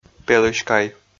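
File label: "пелыськай", Komi, male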